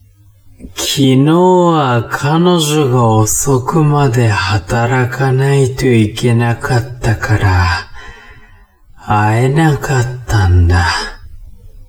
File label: man